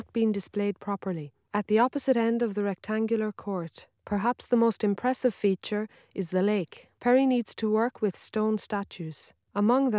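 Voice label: female, instantaneous; SiSEC11; underdetermined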